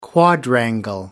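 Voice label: "quadrangle", English, British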